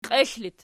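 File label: "qiexl" locative, Archi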